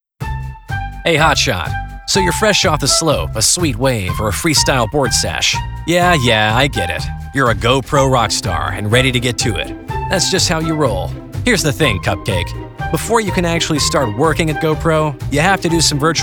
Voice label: elearning